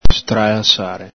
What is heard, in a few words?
"stralasare", Veneto